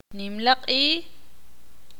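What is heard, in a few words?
"Fiesta" Spanish, Ixil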